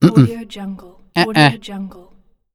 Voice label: male negation